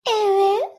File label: uwu female voice